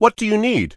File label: early human male shopping